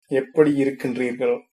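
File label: how are you, Tamil, male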